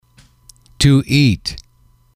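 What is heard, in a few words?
"to eat", English, male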